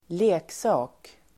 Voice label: "leksak", Swedish